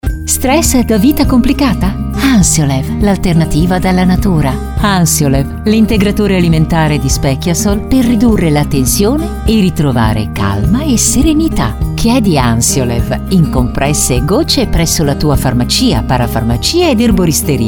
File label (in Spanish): Spot Radio; Ansiolev